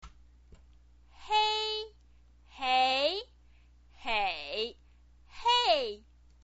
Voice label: "hei", chinese pinyin